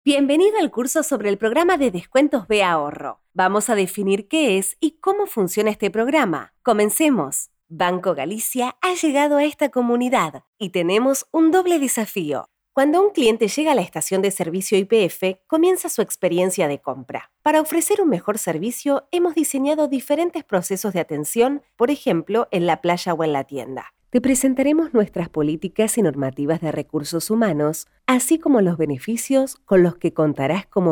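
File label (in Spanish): doblaje, Argentina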